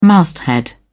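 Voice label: "masthead", British English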